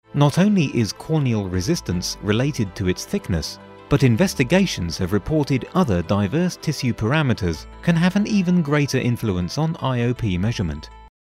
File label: british technical